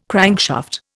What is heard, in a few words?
"crankshaft", Indian